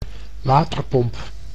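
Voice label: "waterpomp", Dutch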